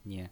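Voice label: "nie", pinyin voice, Mandarin Chinese, neutral tone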